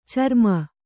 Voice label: "ಚರ್ಮಿ", Kannada

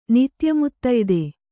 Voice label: "ನಿತ್ಯಮುತ್ತಯ್ದೆ", Kannada

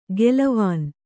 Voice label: "ಗೆಲವಾನ್", kannada

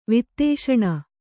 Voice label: "ವಾತಾಶನ", Kannada

